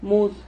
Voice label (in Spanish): "mud", Silabas